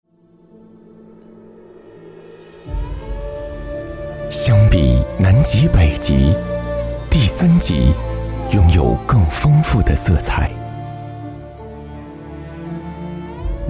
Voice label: 男 纪录片 大气悠扬

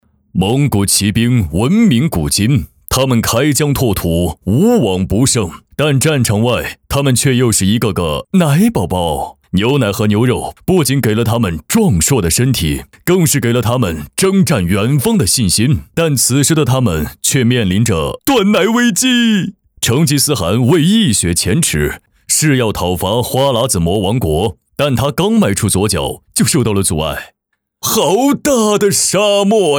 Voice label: 男 幽默风趣历史感 沙漠有机奶短片; 特仑苏沙漠有机奶短片

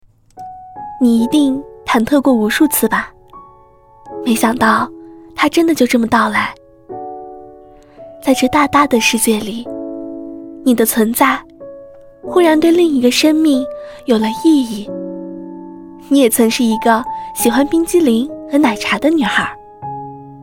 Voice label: 女 独白; 你的存在

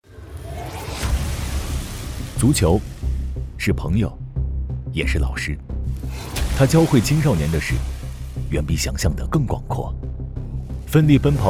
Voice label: 男 独白 激情; 激情足球

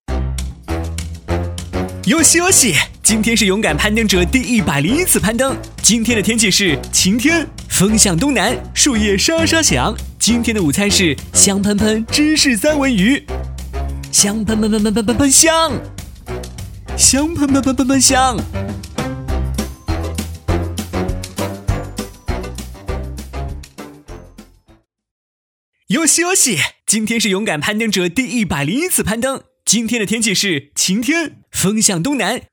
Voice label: 男 激情游戏 勇敢攀登者

